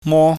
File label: "mo", pingyam